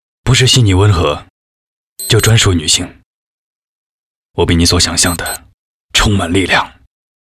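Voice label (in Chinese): 男 广告（高端品质感）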